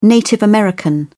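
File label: "native american", British English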